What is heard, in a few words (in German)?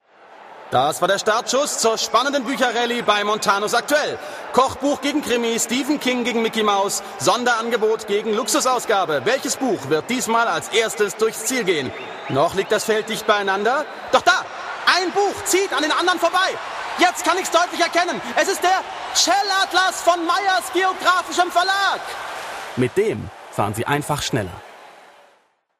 Werbung; Montanus